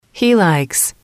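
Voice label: "he likes", English, female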